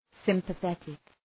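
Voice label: "sympathetically", English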